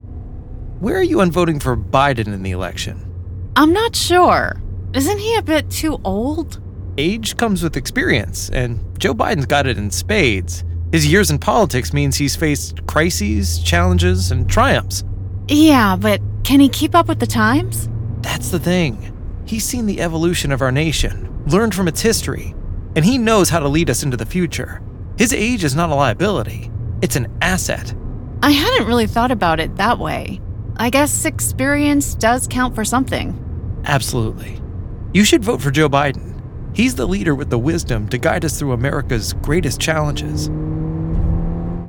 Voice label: Democrat Image Ad VO